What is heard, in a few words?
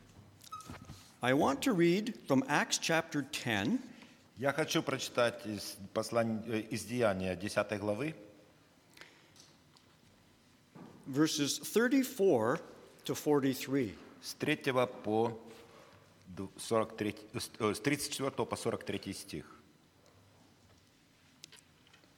Gospel Ukrainian Translation